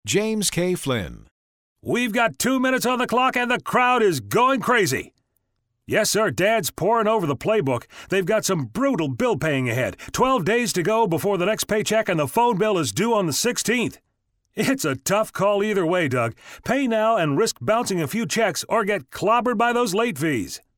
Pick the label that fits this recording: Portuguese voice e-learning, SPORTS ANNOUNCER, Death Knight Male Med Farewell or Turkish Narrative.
SPORTS ANNOUNCER